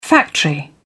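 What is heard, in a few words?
"factory", British English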